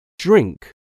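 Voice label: "drink", English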